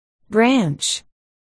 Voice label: "branch", articulation